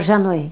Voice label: "zhenoj", Tula